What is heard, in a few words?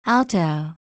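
"alto", USA, female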